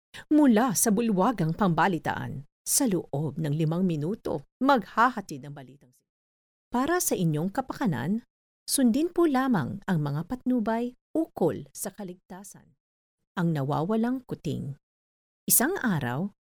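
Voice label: tagalog philippine voiceover locutora filipina; locutora de Filipinas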